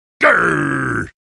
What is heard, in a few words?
grrr angry king sound; clash royal